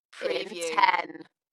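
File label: British female In ten; Dalekness; beanstalk audio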